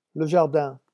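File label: "le jardin", French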